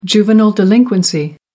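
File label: "juvenile delinquency", British English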